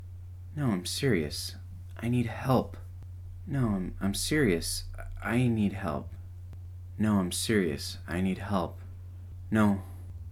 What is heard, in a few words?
No I M Serious I Need Help Pleading Male